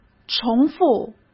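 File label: "重复", Mandarin Chinese; hsk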